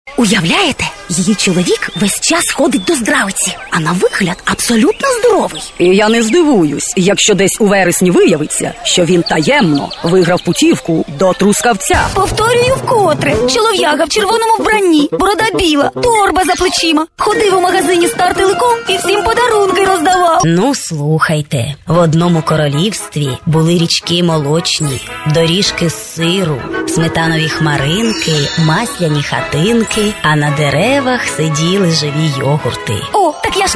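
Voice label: ukrainian female character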